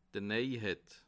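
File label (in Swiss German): "neiheit", Bas Rhin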